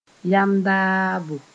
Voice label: "yam daabo", Moore

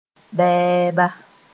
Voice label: "bɛɛba", Mooré; enemies

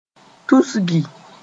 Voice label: "tusgi", moore

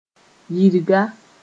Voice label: "yirga", Mooré

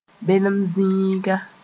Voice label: "belem zĩiga", Mooré

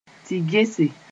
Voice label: "tɩ gese", Moore; see

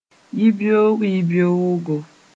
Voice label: "yibeoog-yibeoogo", mos-en; every morning

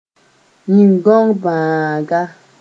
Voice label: "yĩn-gãong-bãaga", Mooré

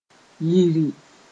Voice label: "yiri", Mooré

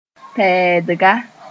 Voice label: "tɛɛdga", Moore

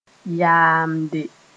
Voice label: "yaamde", Moore

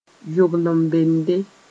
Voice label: "yoglembende", Mooré

